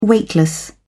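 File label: "weightless", British English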